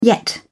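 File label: "yet", British English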